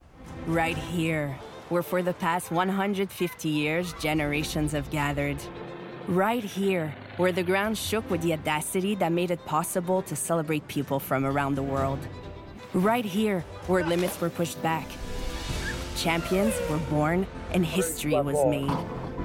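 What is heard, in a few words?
english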